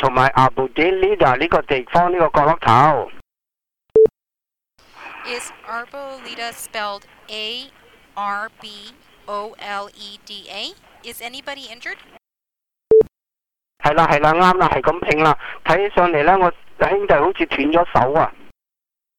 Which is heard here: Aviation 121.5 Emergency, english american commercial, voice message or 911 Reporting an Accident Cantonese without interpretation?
911 Reporting an Accident Cantonese without interpretation